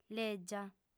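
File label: "lègia", female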